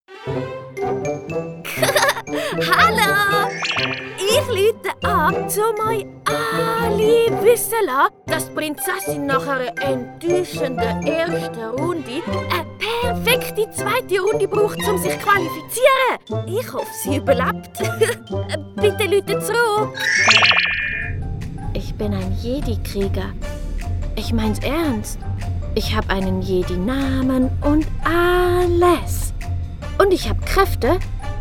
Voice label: female Swiss German and German animation compilation